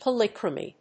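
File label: "polychromy", English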